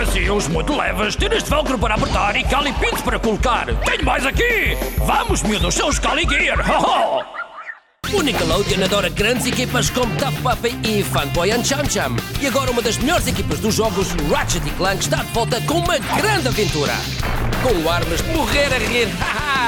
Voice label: portuguese voice characters, Versatile Portuguese Male voiceover